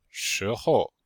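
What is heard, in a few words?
"shi2hou5", Chinese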